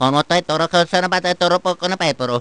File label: gibberish; luigi